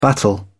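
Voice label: "battle", British English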